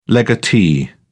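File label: "legatee", British English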